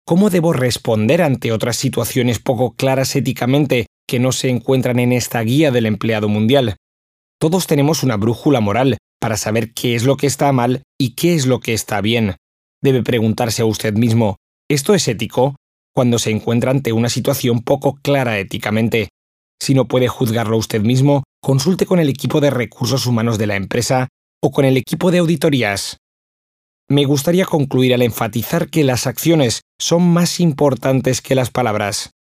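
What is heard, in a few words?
Spanish EU, male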